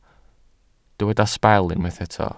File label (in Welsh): "sbel" Welsh